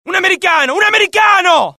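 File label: alert, Italian; mohaa; dialogue; sighted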